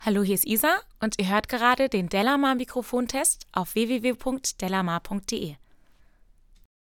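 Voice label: speech female shure pg42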